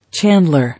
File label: "Chandler", English